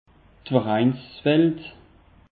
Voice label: "vereinswelt", alsace; peche

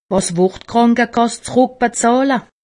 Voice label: "krankekass", alsace; medecine

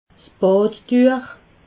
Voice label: "badtuech", alsace

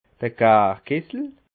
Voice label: "garkessel", alsace

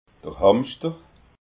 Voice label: "hamschter", alsace

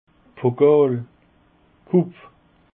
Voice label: "pokal", Alsace

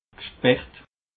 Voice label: "geschperrt", alsace

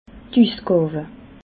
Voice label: "usgawe", alsace

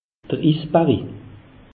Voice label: "isberri", alsace; meteo

